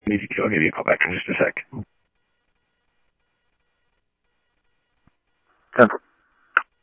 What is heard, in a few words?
Scottsdale Police, scanner